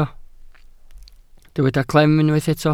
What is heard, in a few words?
"clem", Welsh